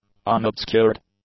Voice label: "unobscured", English; adjectives

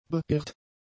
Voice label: "beirrt", German; adjectives

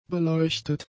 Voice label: "beleuchtet", German; adjectives

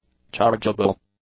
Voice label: "chargeable", English; adjectives

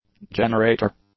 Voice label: "generator", English; nouns

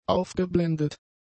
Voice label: "aufgeblendet", German; adjectives

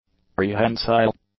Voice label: "prehensile", english; adjectives

